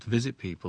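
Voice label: "to visit people", Standard Southern British English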